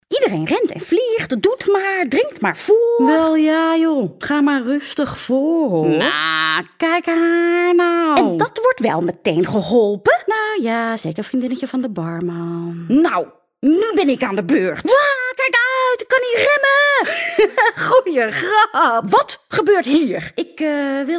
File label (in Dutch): animatie stemdemo stemmetjes